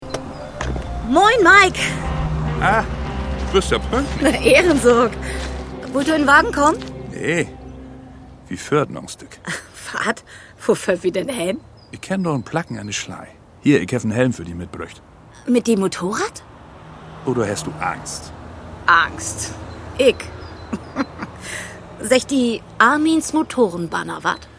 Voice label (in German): Plattdeutsch schwungvoller Dialog Flirt, Hörspiel; Seker is Seker, Rolle Maik – Beispiel 2; Radio Bremen